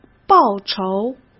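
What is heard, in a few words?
"报仇", Mandarin Chinese; chinese-flashcards; hsk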